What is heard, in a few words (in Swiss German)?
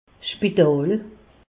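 "spital", Alsatian; medecine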